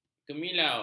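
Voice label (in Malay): "kemilau", Malay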